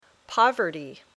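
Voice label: "poverty", English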